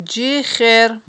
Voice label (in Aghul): "джихер", Aghul